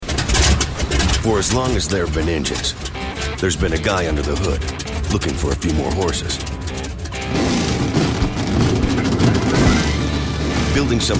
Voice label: COMMERCIAL